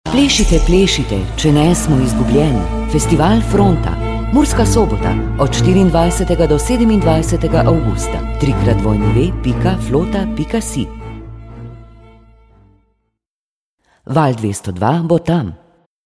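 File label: slovenian female commercial; voice demos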